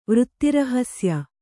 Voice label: "ವೃತ್ತಿರಹಸ್ಯ", Kannada

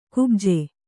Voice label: "ಕುಬ್ಜೆ", Kannada

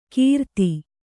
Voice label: "ಕಿರಾತಿ", Kannada

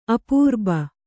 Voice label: "ಅಪೂರ್ಬ", Kannada; apūrba; = ಅಪೂರ್ವ1

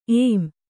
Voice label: "ಏಮ್", Kannada

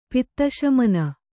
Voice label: "ಪಿತ್ತಶಮನ", Kannada

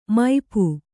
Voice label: "ಮುಪ್ಪು", kannada

